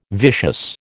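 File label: "vicious", American English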